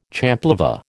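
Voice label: "champlevé", American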